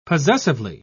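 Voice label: "possessively", British English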